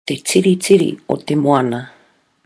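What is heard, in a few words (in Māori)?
"te tiritiri o te moana", Māori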